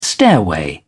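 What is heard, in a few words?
"stairway", British English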